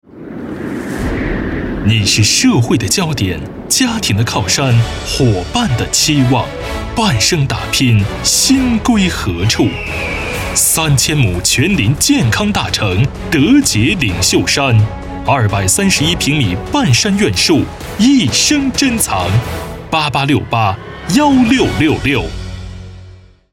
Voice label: 男 中文 广告大气

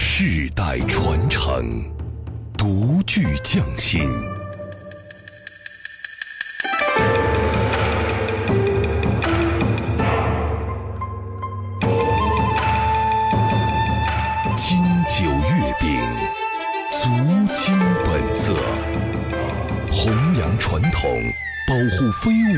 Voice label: 男 宣传片 月饼宣传片

